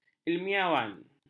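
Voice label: "ilmiawan", Malay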